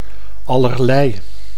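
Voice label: "allerlei", Dutch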